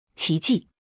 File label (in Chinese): "奇迹", Mandarin